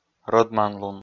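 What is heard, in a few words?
"рӧдманлун", Komi, male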